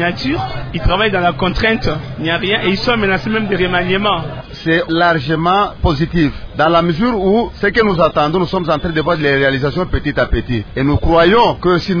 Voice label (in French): Vox pop bilan 100 jours gouvernement de cohesion nationale